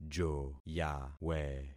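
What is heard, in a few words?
"joyawe", concatenated syllables; Affixing experiment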